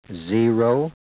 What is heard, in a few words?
SPEECH ENGLISH